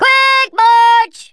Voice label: come on then, Drill Sergeant; Worms Armageddon